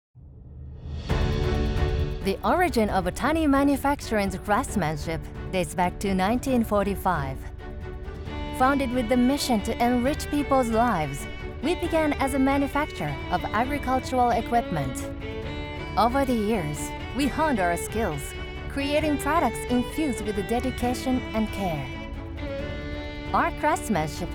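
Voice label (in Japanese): 企業VP EN, 日英バイリンガルナレーター; 谷製造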